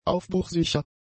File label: "aufbruchsicher", German; adjectives